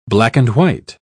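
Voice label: "black-and-white", British English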